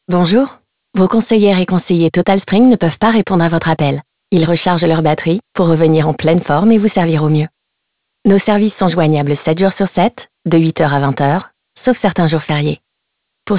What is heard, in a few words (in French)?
attente tel; TOTAL SPRING